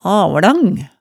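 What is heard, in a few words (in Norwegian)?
"avlang", Hemsedal dialect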